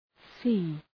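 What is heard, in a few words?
"sea", English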